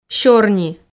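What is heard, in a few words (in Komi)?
"сёрни", Komi, female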